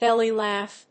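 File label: "belly laugh", English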